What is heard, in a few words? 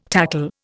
"tackle", Indian